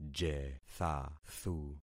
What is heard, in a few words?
"jethathu", concat syllables; Affixing experiment